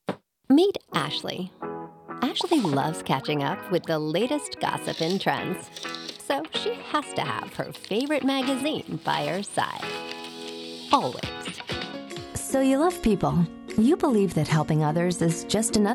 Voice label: Explainer Video